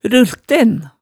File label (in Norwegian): "rulten", Hemsedal